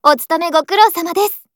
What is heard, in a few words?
HELLO JP; M1